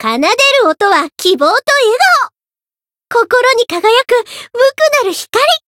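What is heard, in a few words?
story, Japanese; melody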